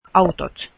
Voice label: cars, Finnish